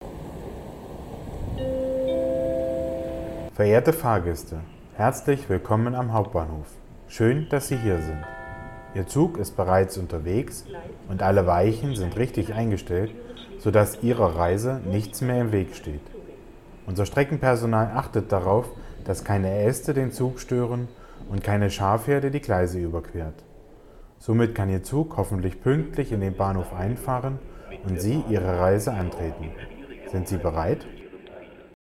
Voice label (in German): horspiel; 2 adventssonntag